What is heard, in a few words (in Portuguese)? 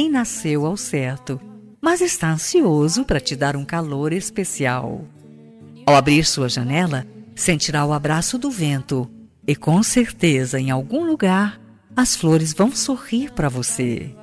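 ANIV COMADRE female, telemensagem aniversario comadre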